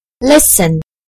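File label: "listen", British English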